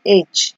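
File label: "H", Nigerian Pidgin, female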